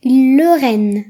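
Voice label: "stage", Breton; gcompris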